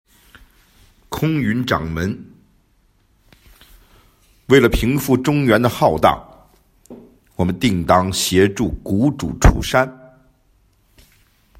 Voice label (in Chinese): 试音游戏角色